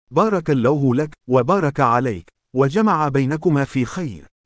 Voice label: Marriage Dua In Arabic, Baraka allahu lakuma